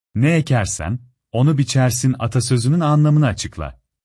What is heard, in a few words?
turkish prompt